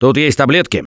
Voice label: Spot Pills, left4dead2 russian, Survivor Voice; Gambler

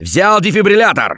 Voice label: defibrillator, left4dead2 russian, Survivor Voice; Gambler

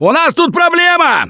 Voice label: warn tank, left4dead2 russian, Survivor Voice; Gambler

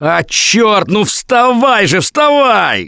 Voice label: Revive Friend Loud, left4dead2 russian, Survivor Voice; Gambler

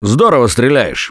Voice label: Nice Shot, left4dead2 russian, Survivor Voice

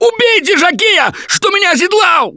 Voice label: grabbed by jockey, left4dead2 russian, Survivor Voice; Gambler

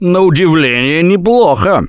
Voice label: Nice Job, left4dead2 russian, Survivor Voice; Gambler

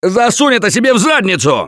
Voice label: Taunt, left4dead2 russian, Survivor Voice; Gambler

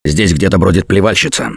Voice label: heard spitter, left4dead2 russian, Survivor Voice; Gambler

